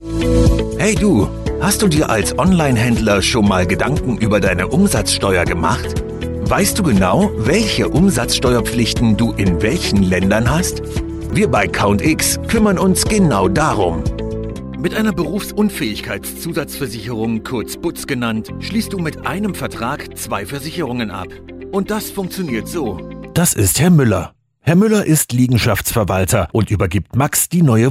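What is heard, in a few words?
German, male, Explainer Training program; Showreel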